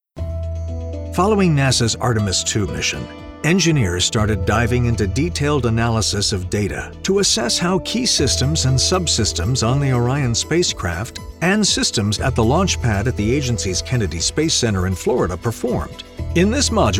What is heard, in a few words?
E-Learning